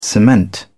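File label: "cement", British English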